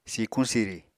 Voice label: "sikuñ-siri", Apatani

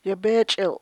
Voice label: "yebeechil'" lip, chukchansi

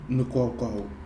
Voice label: "nɨkoukau", Nafe; bridge

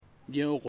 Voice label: "this pig", Ipili

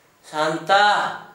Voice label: market, Gta, Dialect: Hill; santa

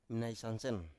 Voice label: "mnaisansen", Biak

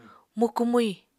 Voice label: "mukú-mwi", Apatani

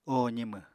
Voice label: "oho zimw", Apatani

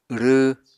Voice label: "wrww", Apatani

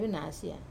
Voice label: "venasia" only, Wamesa

